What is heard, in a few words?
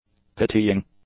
"pitying", english; adjectives